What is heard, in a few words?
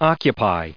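"occupy", US English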